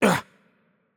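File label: French hurt light voice; bloom minigame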